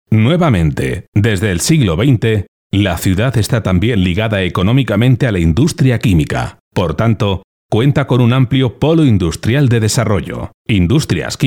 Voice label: locutor spanish voiceover